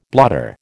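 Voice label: "blotter", American English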